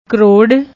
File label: "crore", Punjabi; ਕਰੋੜ